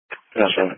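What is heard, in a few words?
Police Patrol Dispatch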